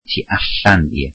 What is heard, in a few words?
welsh outside